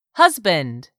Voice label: "HUSBAND", english; 3000 words